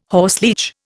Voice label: "horseleech", Indian